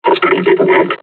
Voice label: taunt, combine charger; Half Life Alyx